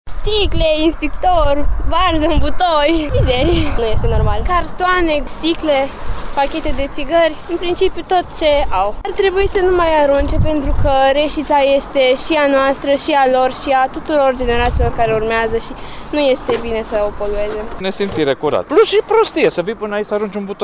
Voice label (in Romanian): vox copii